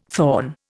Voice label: "thorn", Indian

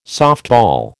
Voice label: "softball", American

